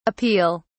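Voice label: "appeal", British English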